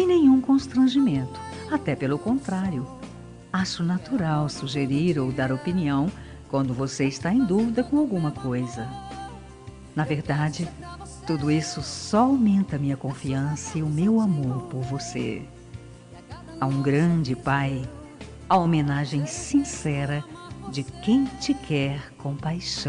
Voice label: namorado fem, Dia dos Pais para Namorado – Voz Feminina

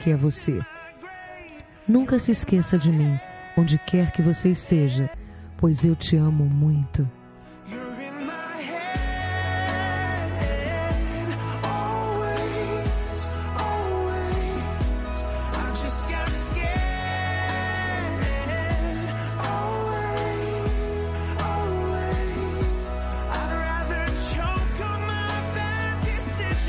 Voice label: dn namorada fem, Dia dos Namorados, Para Namorado, Voz Feminina

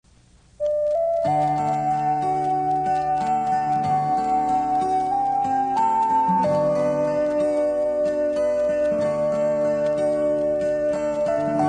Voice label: desc masc; Reconciliação Amizade – Voz Masculina

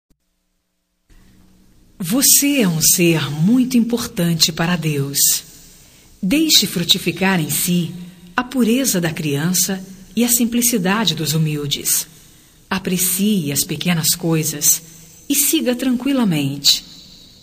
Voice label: Otimismo Geral Feminino, Telemensagem de Otimismo – Voz Feminina

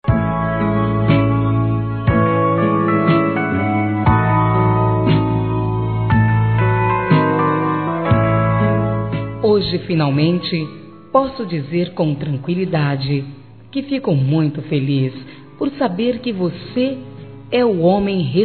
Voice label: genro fem

